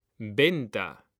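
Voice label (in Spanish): "venta", Spanish; mekanta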